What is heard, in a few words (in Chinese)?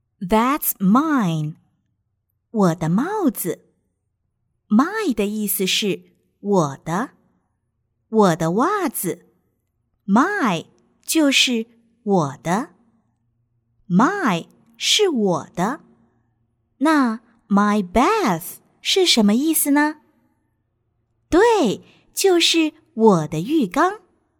女 中英教材 幼儿教材